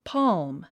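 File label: "palm", English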